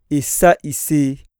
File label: sneeze, iyasa